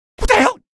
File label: shock, male; video games; npc; source engine; episodic; episode 1